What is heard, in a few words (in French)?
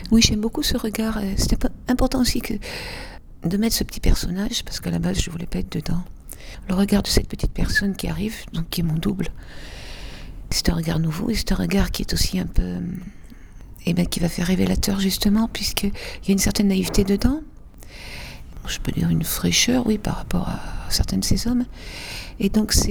Une voix douce pour évoquer la rudesse